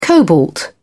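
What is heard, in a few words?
"cobalt", British English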